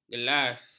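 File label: "gelas", Malay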